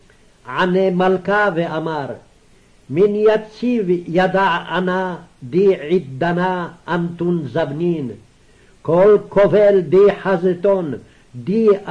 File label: Daniel Reading Practice, Aramaic